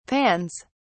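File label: "pans", British English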